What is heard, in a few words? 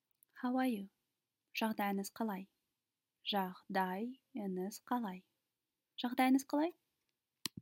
How are you, kazakh